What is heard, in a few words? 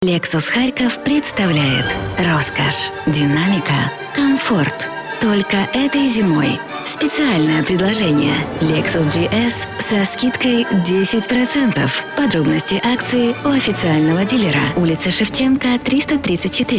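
Russian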